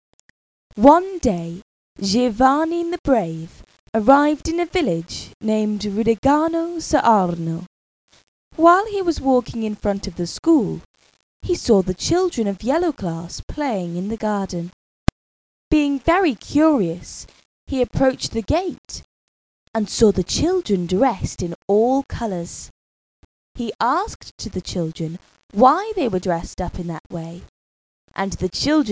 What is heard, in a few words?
english version, english teacher's voice